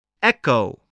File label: "echo", spelling